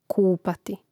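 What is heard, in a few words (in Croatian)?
"kupati", Croatian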